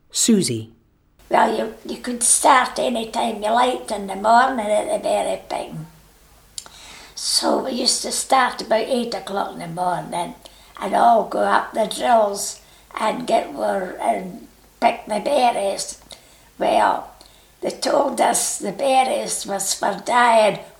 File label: Edinburgh, scotspeak